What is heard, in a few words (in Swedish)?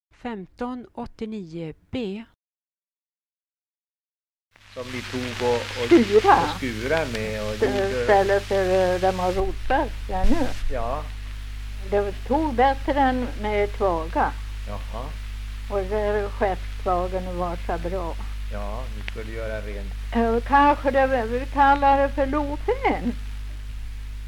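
Alfta Hälsingland, dialekter; Folkminnen; Svenska sagor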